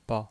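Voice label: "bao", Mandarin Chinese, neutral tone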